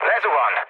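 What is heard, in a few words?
Hungarian aircraft destroyed mood low, speech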